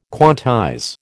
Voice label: "quantize", American